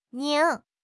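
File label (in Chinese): "拧", Chinese; HSK; HSK6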